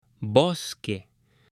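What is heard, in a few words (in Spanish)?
"bosque", Spanish, male; LUGARES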